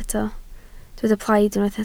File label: "plaid", Welsh